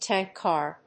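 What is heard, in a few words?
"tank car", English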